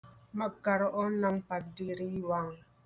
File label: TAGALOG